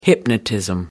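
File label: "hypnotism", British English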